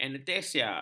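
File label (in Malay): "anestesia", Malay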